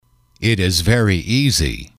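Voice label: "it Is Very Easy", male, english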